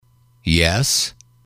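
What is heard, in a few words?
"yes", male, english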